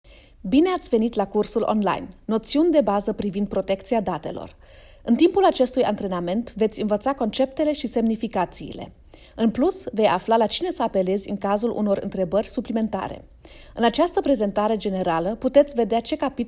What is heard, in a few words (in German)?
Sprachaufnahme rumaenisch; LOFT VOICES